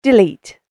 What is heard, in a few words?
"Delete", British Female Voice; human voice sound effect; spoken word; Andrew Fleming